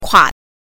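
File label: "kua", Mandarin Chinese pinyin, neutral tone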